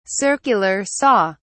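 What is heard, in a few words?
"circular saw", British English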